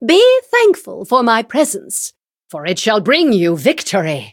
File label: battle, en; Cookie Run